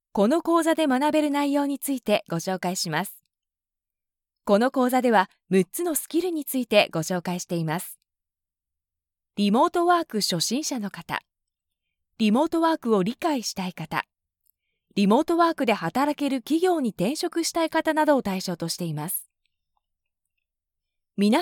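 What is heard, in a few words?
Elearning remotework Japanese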